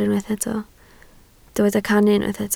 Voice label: "cannu", Welsh